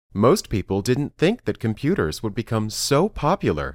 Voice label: Dictation